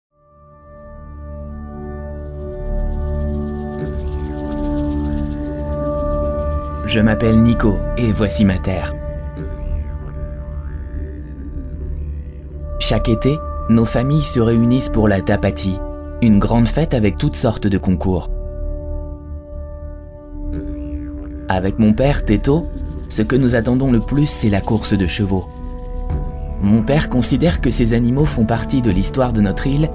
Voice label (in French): Voix off documentaire; RAPANUI